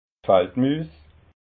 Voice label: "faldmuus", alsace

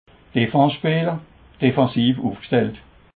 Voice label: "defens", alsace

